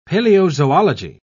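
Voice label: "paleozoology", British English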